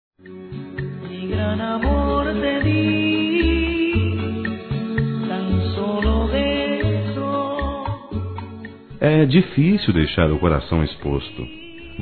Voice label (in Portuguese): Paquera Masculino; Telemensagens; Conquistas Cantadas paqueras; Telemensagens 2017